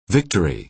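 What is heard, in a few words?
"victory", American English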